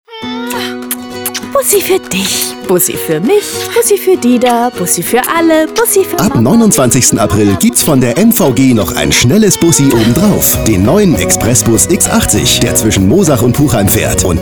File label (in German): Radiospot; MVG; ExpressBus X80